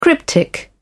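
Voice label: "cryptic", British English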